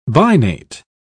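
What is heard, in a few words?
"binate", English, uk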